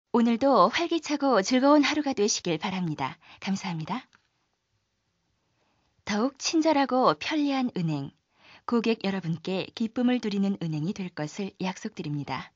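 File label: Korean female; ARS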